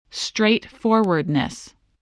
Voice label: "straightforwardness", spelling